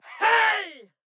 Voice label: Game Character Hey Loud